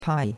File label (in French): "pai4", chinois; Cours-de-Chinois